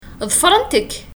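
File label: Kabyle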